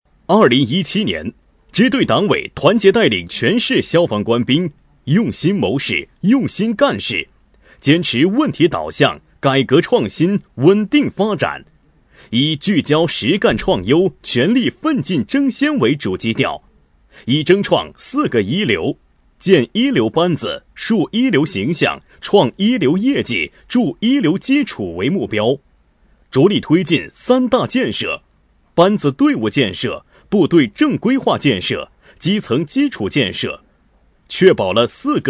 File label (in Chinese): 专题 汇报片（激昂）